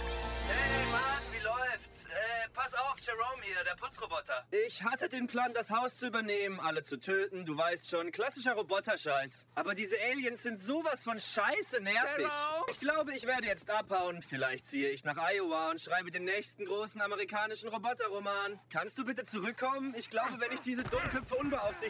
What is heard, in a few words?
Animation; Solar Opposites